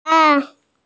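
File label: "nv3hai2" Mandarin Chinese, female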